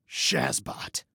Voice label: Global Shazbot, Male